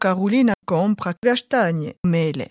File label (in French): réponse, Moita Verde – nord